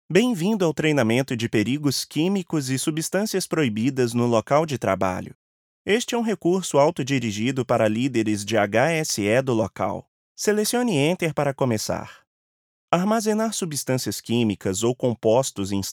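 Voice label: Brazilian Portuguese E-Learning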